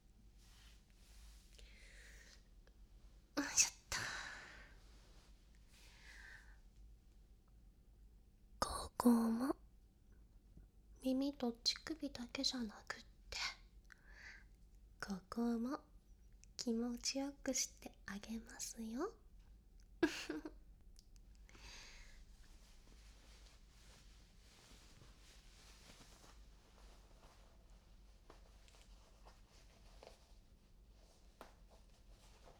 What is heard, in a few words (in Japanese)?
ボイスドラマ 囁き バイノーラル